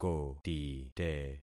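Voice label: "kotite", concatenated syllables; Affixing experiment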